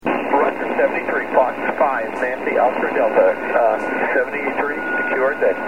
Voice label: 28 ssb